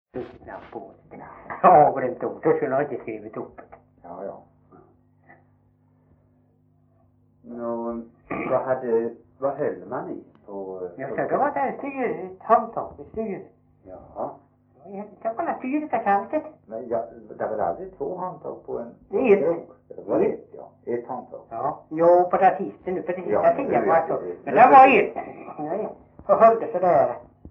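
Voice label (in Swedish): Veddige Halland, Svenska sagor dialekter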